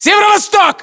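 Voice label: direction relative northeast, Russian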